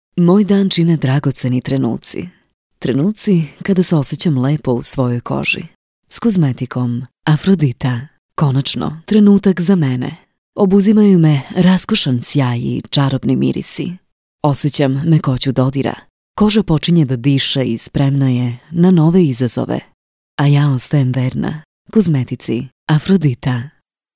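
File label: Commercial, SRB Serbian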